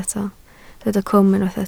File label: "cwm", Welsh